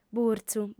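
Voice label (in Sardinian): "burtzu", female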